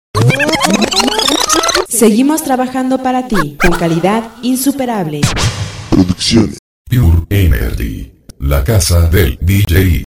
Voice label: spot; segimos trabajando pure energy